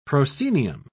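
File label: "proscenium", British English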